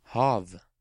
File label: "Hav", Norwegian